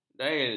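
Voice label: "dail", Malay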